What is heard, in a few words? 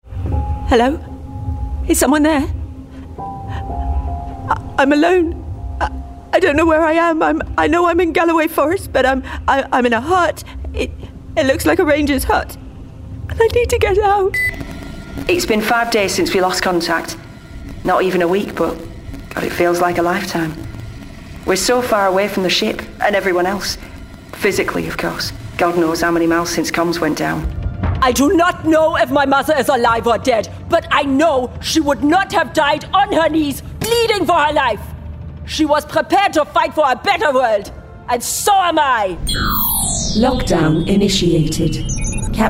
Gaming Character Reel